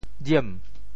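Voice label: "jiem2", Teochew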